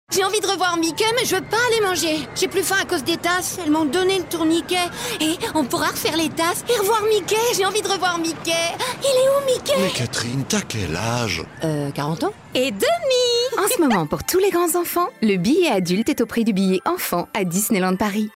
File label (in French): pub comedie; disneyland paris